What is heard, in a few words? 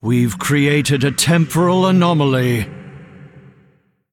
Male Constellar Play